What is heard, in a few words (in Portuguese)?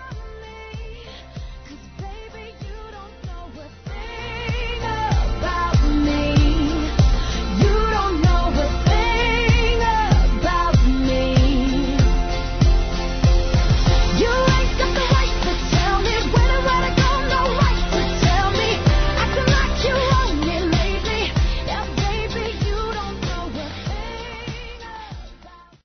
Páscoa Para Afilhados Masculino; Telemensagens